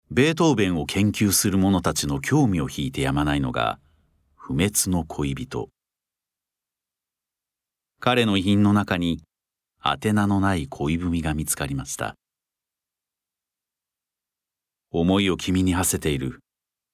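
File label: narration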